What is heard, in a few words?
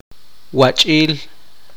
poqomchi; tamarindo